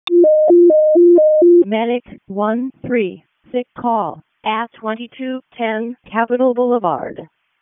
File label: EMS ALERT; wake; p25